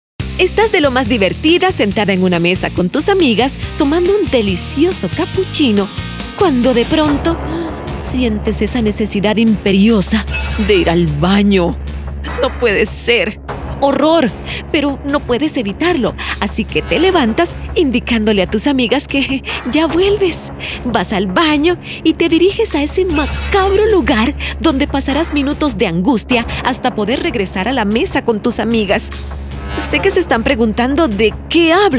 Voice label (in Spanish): Locución interpretativa